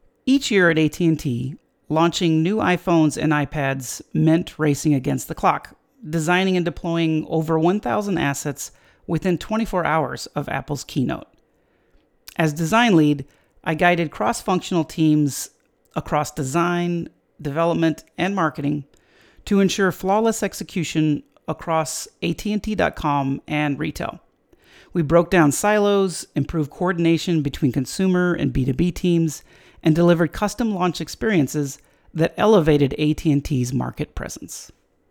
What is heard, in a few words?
voicetrack; attiphone